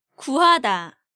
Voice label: "Guhada", Korean